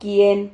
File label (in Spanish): "quihen", Silabas